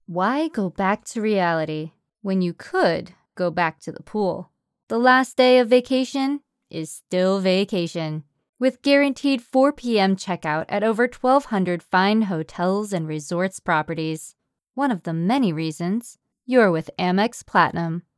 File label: Commercial Calm Natural; AmEx